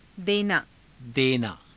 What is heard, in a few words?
"denaa", hindi